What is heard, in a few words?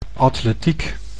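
"atletiek", Dutch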